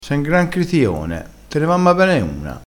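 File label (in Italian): "critione", vocabolario dialettale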